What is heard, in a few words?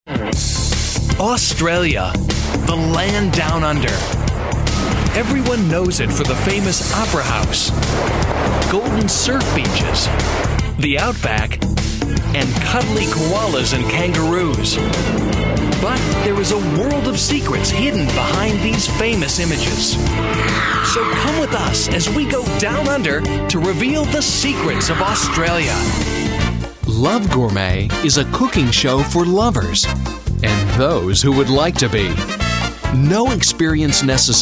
promos